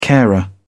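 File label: "carer", British English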